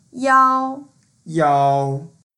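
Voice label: "yao1", Mandarin Chinese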